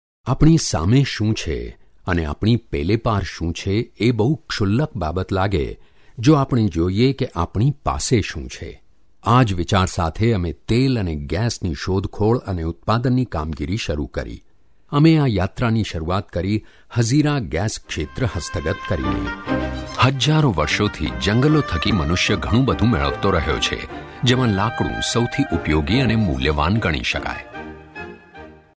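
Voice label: Gujarati, female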